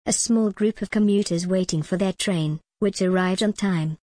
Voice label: en gb a small group of commuters waiting for their train which arrived on time